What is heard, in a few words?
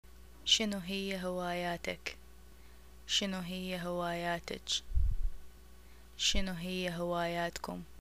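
what are your hobbies, Iraqi Arabic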